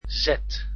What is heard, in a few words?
"z", Dutch alphabet